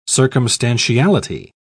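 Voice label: "circumstantiality", British English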